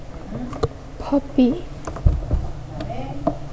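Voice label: "puppy", spoken word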